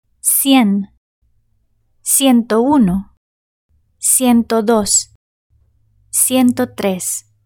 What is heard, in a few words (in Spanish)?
"cien", Spanish